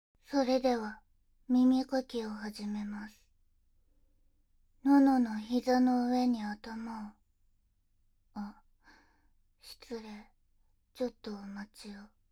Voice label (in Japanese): 【SEOFF】【右耳ご奉仕】唾液をたっぷり塗した指で、耳の奥までマッサージしてもらえるお手軽メソッド; ボーナストラック～SE OFFver～